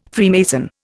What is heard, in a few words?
"Freemason", Indian